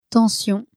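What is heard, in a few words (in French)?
"tension", French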